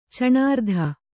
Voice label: "ಚಣಾರ್ಧ", Kannada

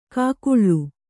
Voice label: "ಕೂಗಳಿ", Kannada

